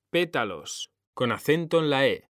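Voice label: "pétalos", Spanish, male; mekanta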